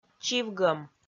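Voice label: "чивгӧм", Komi, female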